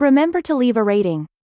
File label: Delivery Drone VO Common